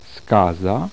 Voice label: voiced alveolar medial, polish